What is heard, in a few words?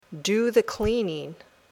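"do the cleaning", English words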